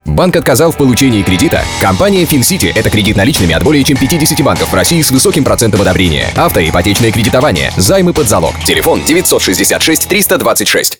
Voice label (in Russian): Аудиоролик рекламный ролик; АУДИОРОЛИКИ; Компания Фин-Сити